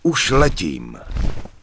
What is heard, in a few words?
Human Male Goodbye; wowpreklad; czwow; HumanVocalMale